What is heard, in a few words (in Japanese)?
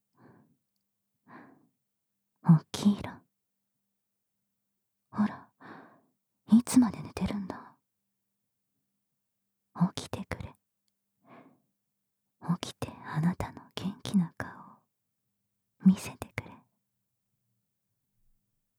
目覚ましアラーム音声; ソニアのえっちな…目覚ましアラーム音声♪